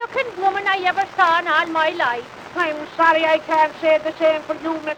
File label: Backyard conversation; edison